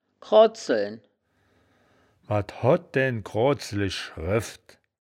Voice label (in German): "kroazeln", wittlich